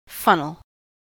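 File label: "funnel", American English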